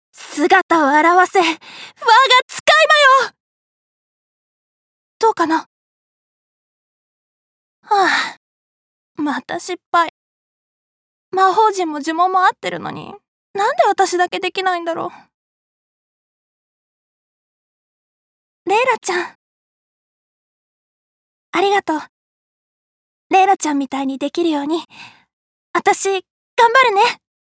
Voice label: 魔法使い少女

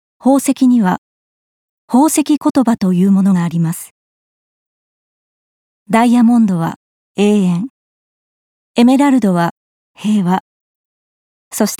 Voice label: 宝石解説ナレ

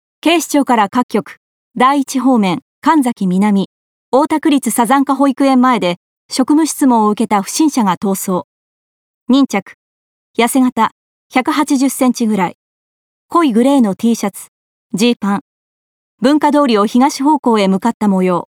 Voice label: 警察無線

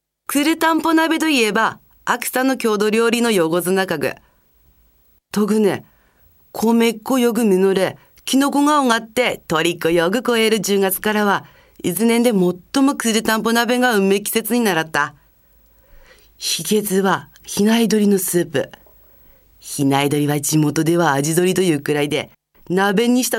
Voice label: 方言, 秋田弁

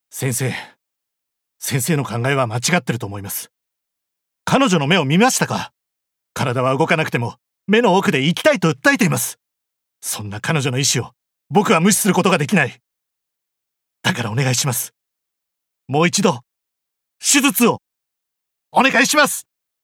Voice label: ドクターに懇願

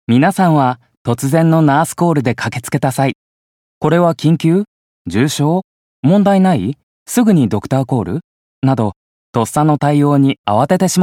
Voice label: 解説ナレ

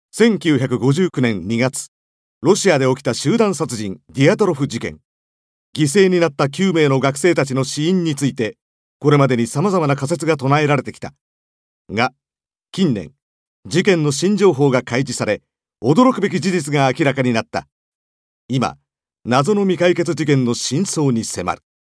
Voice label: 未解決事件解説

